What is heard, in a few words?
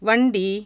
"cart", Tamil consonants; JIPA